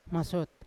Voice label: "masyot", Yawa; day; unat